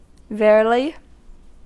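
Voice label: "verily", American English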